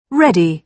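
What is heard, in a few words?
"ready", British English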